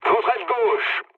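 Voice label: French left wing mood low; War Thunder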